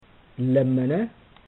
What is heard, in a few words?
"lammana", amharico